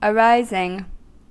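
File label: "arising", US English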